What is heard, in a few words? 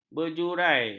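"berjurai", Malay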